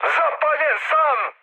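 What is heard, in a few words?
Serbian on fire mood high, speech; War Thunder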